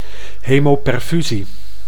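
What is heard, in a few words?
"hemoperfusie", Dutch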